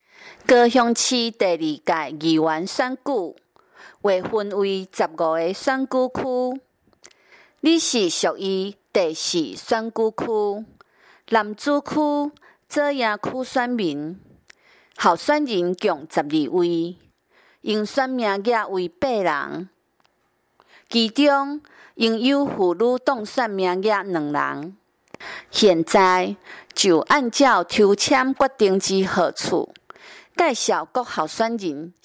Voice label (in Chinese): 台語, 前言, 有聲選舉公報; 第四選區